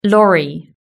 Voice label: "lorry", british english

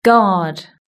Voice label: "guard", british english

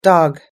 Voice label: "dog", us english

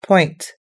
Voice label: "point", us english

